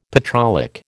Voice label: "petrolic", American